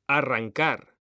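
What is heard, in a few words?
"ARRANCAR", Spanish, male; mekanta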